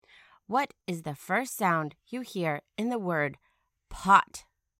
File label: pot initial sound